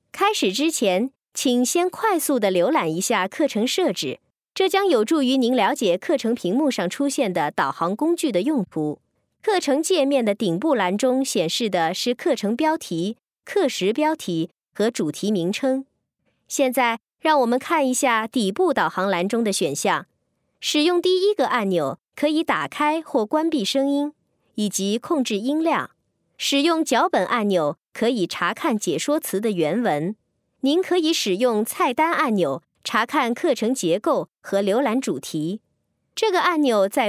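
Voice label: chinese mandarin female narration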